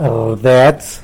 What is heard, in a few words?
"rdets", tlacolula; is inside out